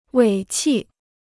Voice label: "尾气", Chinese

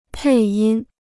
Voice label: "配音", Chinese

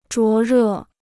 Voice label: "灼热", Chinese

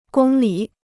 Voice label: "公里", Chinese

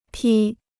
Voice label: "披", Chinese

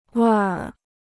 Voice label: "味儿", Chinese

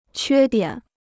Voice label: "缺点", Chinese

